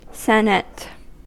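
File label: "senate", American English; pronunciations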